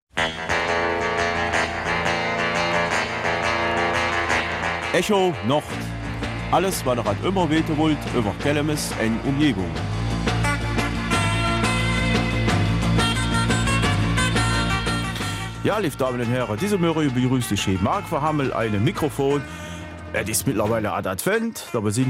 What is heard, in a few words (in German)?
Kelmiser Mundart